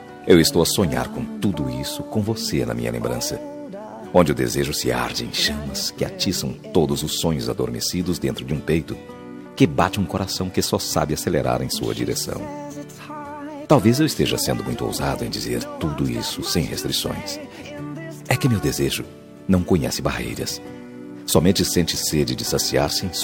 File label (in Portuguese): dia dos namorados paquera masculina; dia dos namorados conquista voz masculina